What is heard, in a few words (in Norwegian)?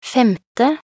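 "femte", Norwegian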